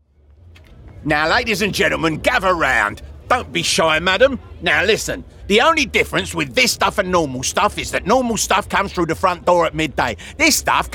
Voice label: London Market Trader